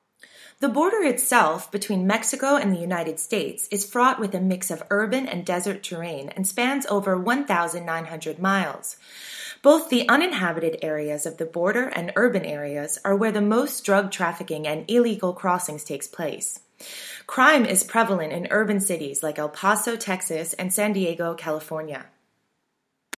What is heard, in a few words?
Read Aloud